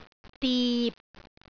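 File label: "dip6", Cantonese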